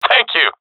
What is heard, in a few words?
thankful, professional; vo; Counter Strike Model Pack; CS:GO